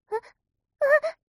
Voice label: mob 女子 台詞

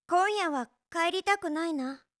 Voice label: 今夜は帰りたくないな, 恋人に甘える口調

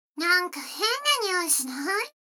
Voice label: 女の子台詞, ASMR

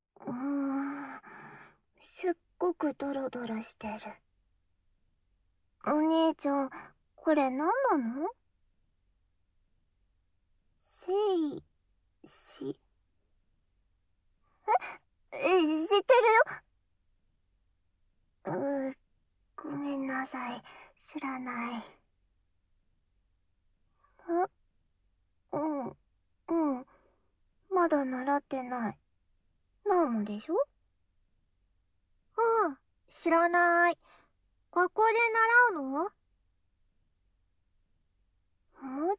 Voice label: 射精の後のお掃除フェラ, ASMR; 反転

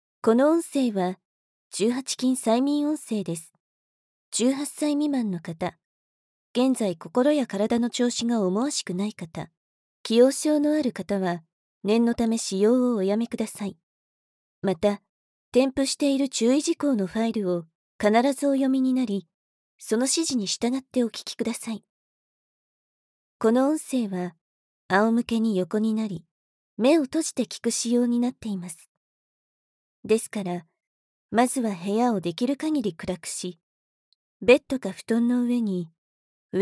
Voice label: 催眠導入, ASMR; 無修正

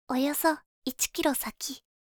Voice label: およそ1キロ先, カーナビ 案内音声; カーナビ(で使えるかもしれない)案内音声

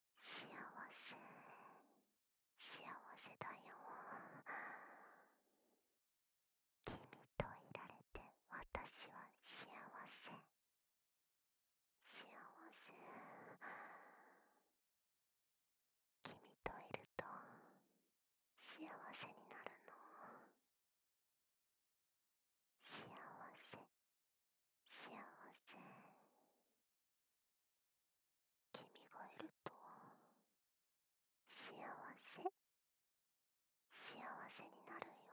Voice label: 囁き 右耳

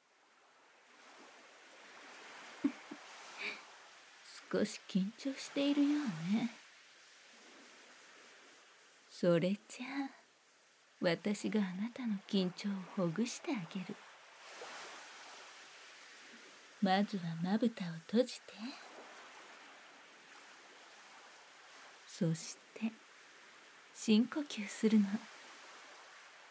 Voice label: 催眠導入

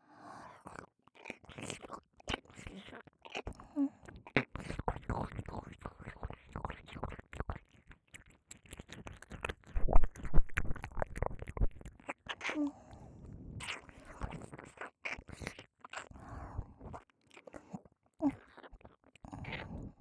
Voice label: しこしこ～だして（左; おまけ左耳用

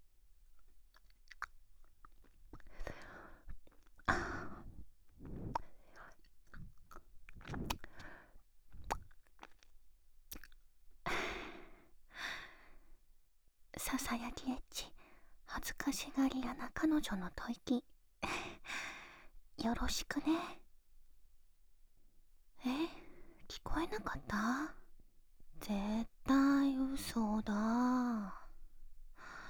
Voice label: PRボイス; 販促ボイス

